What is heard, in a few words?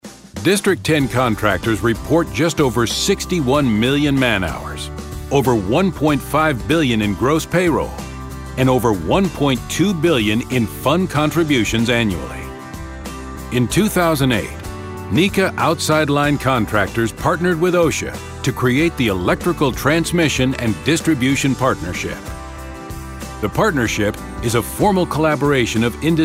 Corporate